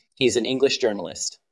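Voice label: VoiceActing es M2, voice acting, Spanish, male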